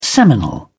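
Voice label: "seminal", English, British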